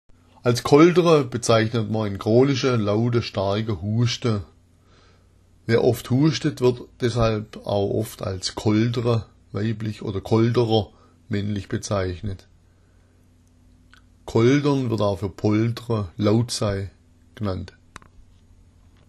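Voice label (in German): kolderer, schwäbisch; koldera; Als koldera bezeichnet man chronischen, lauten, starken Husten, wer oft hustet, wird deshalb auch als Koldera (weiblich) oder Kolderer (mä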